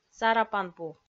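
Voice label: "сарапанпу", Komi, female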